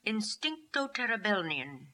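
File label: "Instincto terebelnian", pronunciations; Fourth Way; Beelzebub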